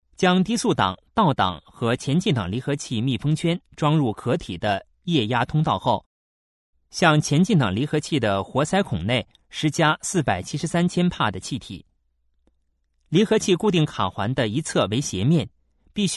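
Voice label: Chinese, male, various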